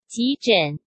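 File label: "急诊" Mandarin Chinese; hsk; chinese-flashcards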